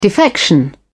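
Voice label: "defection", British English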